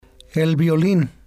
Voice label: "el violin", spanish

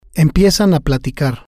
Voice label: "empiezan a platicar", Spanish

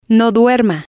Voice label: no duerma, Spanish

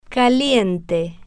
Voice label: "caliente", spanish

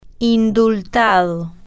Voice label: "indultado", Spanish

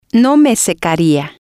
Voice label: no me secaría, Spanish

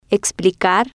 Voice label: "explicar", spanish

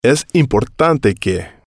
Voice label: es importante que, Spanish

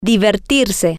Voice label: "divertirse", Spanish

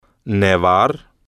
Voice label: "nevar", spanish

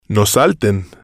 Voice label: no salten, Spanish

